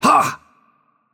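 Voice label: Arabic AttackHeavy; bloom minigame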